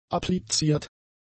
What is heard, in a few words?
"appliziert", German; adjectives